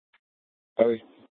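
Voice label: police dispatch, scanner; Mesa PD; 1 Fiesta Dispatch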